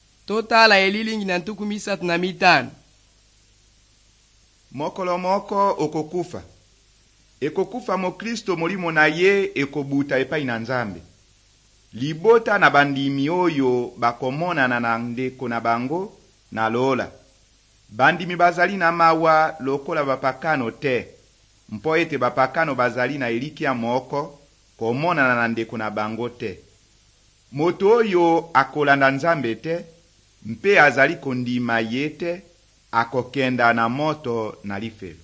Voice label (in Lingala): good news lingala west, drama